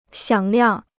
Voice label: "响亮", Chinese

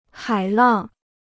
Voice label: "海浪", Chinese

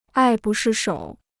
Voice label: "爱不释手", Chinese

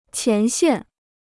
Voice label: "前线", Chinese

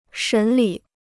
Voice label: "审理", Chinese

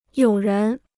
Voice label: "有人", Chinese

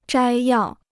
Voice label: "摘要", Chinese

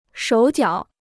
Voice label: "手脚", Chinese

